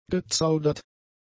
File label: "gezaudert", German; adjectives